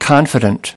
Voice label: "confident"